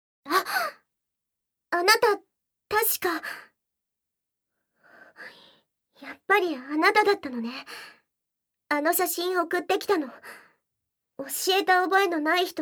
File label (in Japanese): 催眠, ASMR; 脅迫催眠コンプレックス; 効果音なし